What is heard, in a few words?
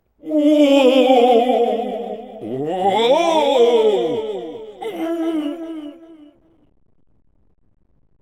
Guardian Roar (English); Sonic Riders